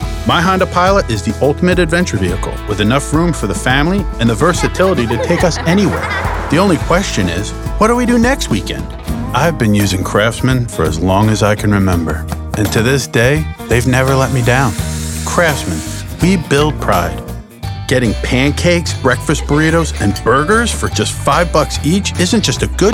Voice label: Commercial Demos